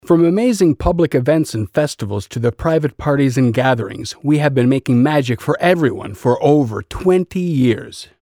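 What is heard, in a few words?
English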